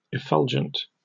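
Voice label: "effulgent", British English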